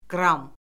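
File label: "qram", Azerbaijani; Orfoqrafiya Lüğəti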